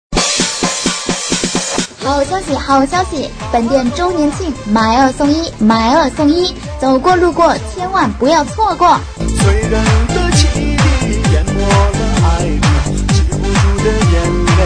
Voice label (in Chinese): 女 促销